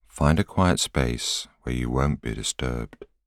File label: voiceover